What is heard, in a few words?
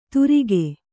"ತುರಿಗೆ", Kannada